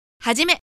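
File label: "hajime", Japanese; announce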